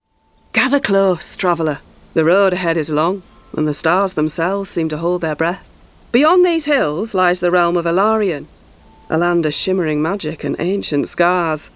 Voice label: Gaming narrator Northern voice

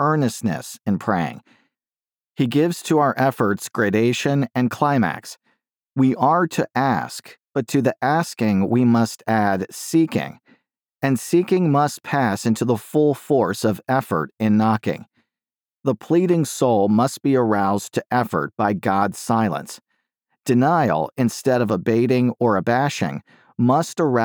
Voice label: Prayer, Audiobook Narrator; Retail Sample

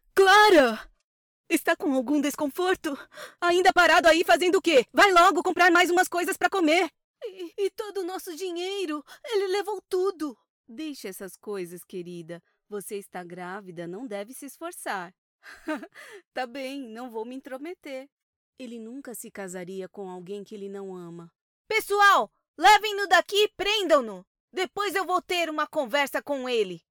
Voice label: Dramatic Character Adult Series; PT-BR; Dubladora & Voice Actress

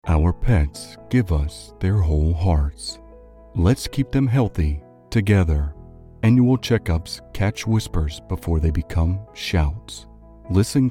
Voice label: Emotional Supportive, Male; Deep Male VO; Conversational, Commercial, Narration, Promo

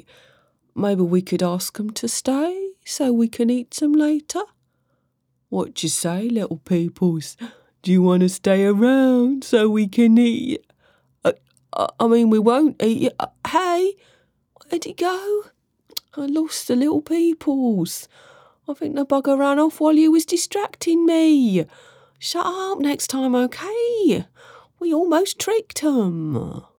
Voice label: stupid ogre

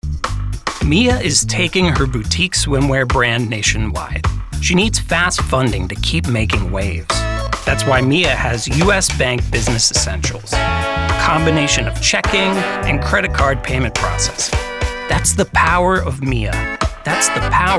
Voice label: VO Style Friendly, Natural; Houston, TX

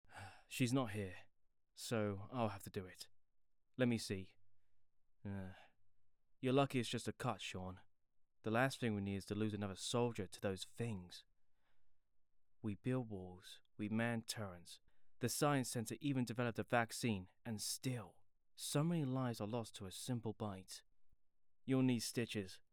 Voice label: Voice character

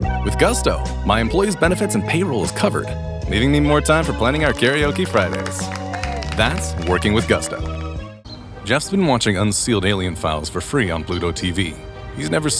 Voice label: Commercial, Professional Voice Over

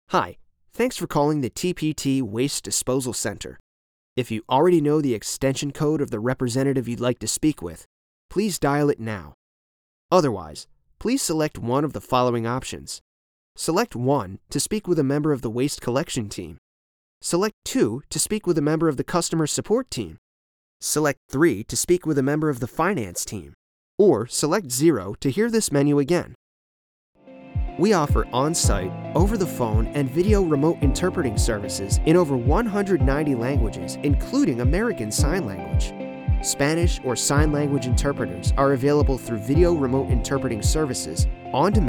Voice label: IVR Telephony

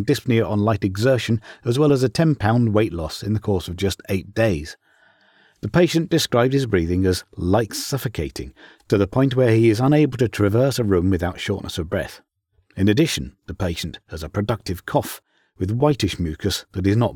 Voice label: Medical E-Learning, British

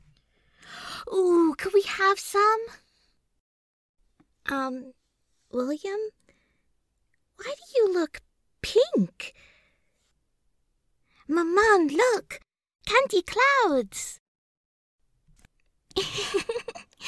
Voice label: Little Girl Sample Lines

